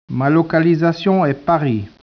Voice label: speech, French